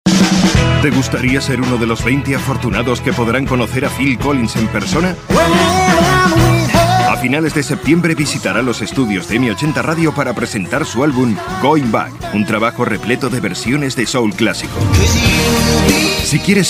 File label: Male Spanish Euro